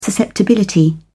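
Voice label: "susceptibility", British English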